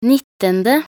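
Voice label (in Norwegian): "nittende", Norwegian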